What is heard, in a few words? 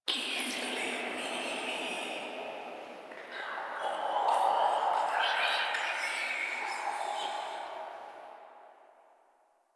Whispers; Inf Hub Lab Many Lights; Warframe; Ambience; Entrati